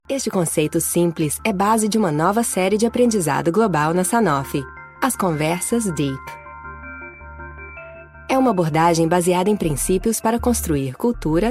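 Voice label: female Portuguese Brazil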